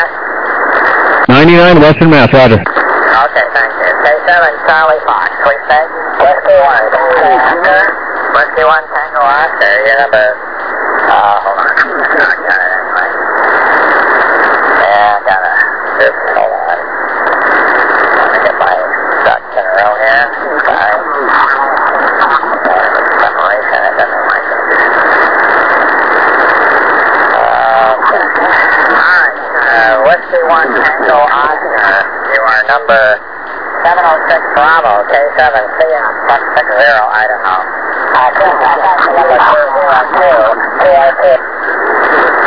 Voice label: SSB USB 14MHz